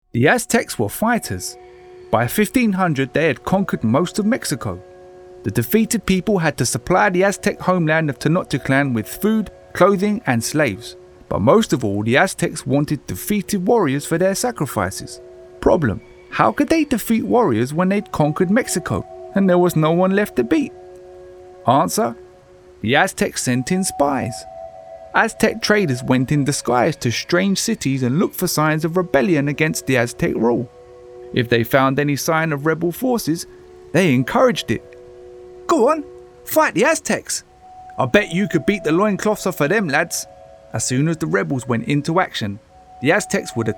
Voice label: Documentary

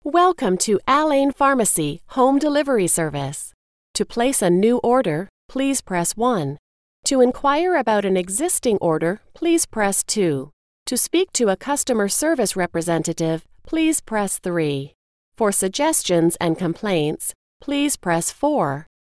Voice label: IVR

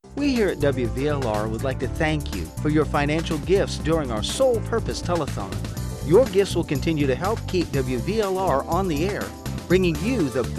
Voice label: telethon spot; WVLR

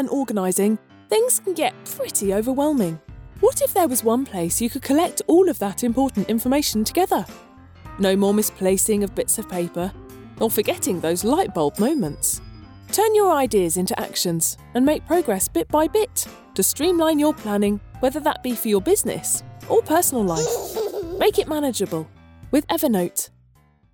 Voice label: Corporate Reel

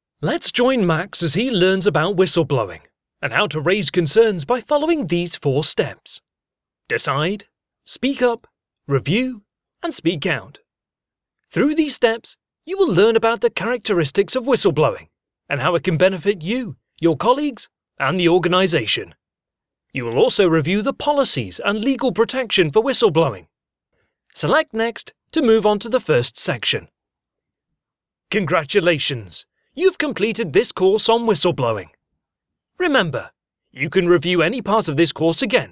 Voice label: Elearning and Corporate

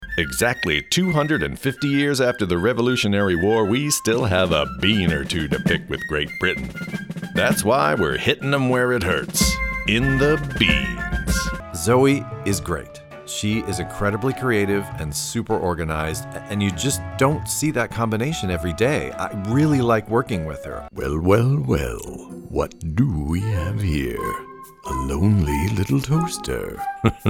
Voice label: Character

